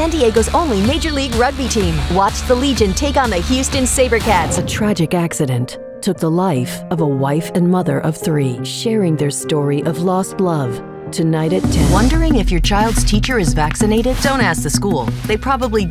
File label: tv affiliate women news